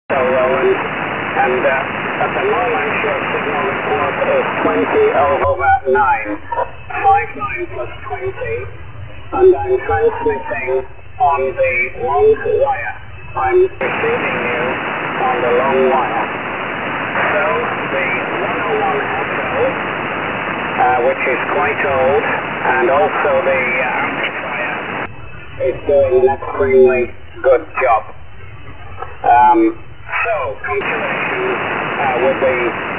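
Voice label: SSB noise reduction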